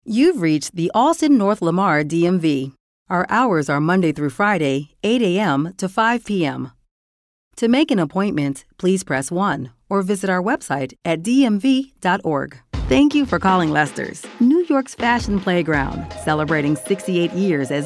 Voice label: IVR

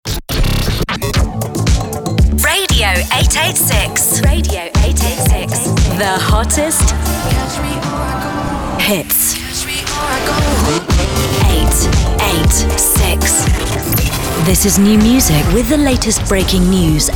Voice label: IMAGING PROMO

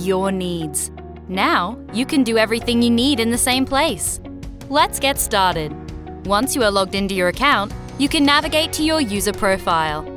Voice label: Corporate and E-Learning Reel

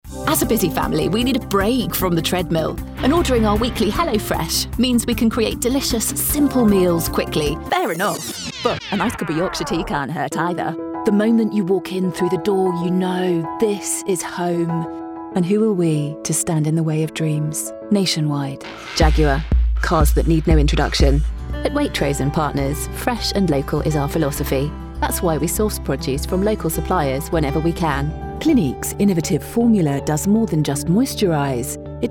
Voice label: Commercial

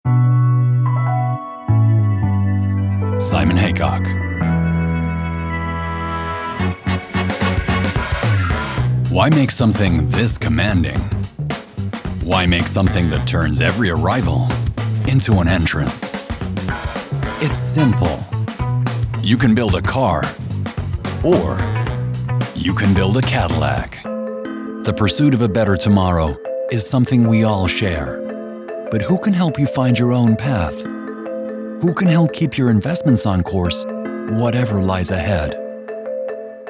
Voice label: North America Commercial

